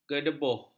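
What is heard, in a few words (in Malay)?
"gedoboh", Malay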